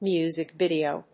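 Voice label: "music video", English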